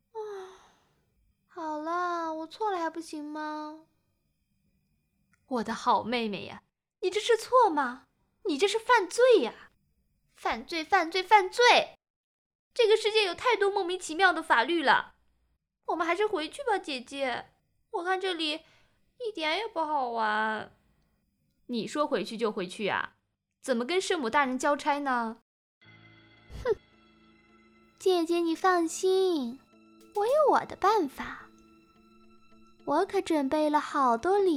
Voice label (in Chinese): 娇媚慵懒